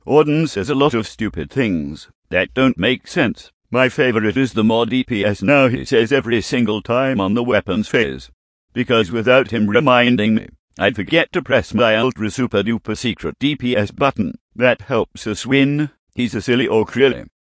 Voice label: total gibberish guy; Robotic or Stuttery or Spooky